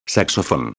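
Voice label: "saxofon", Spanish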